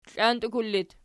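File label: "klantukul" locative, Archi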